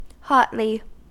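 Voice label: "hotly", US English; pronunciations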